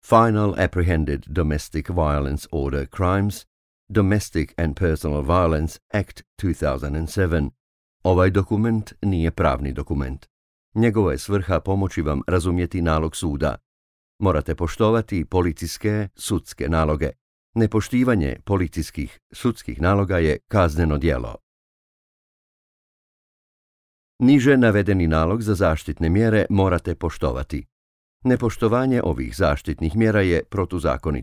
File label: croatian; introduction